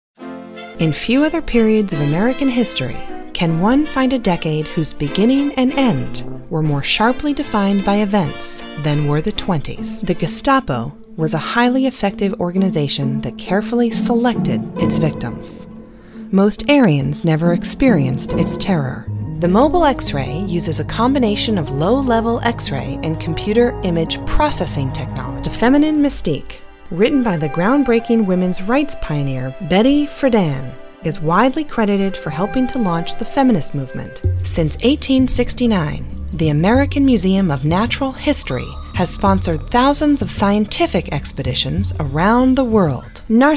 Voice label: Narrations